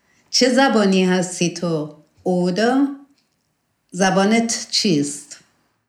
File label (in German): persisch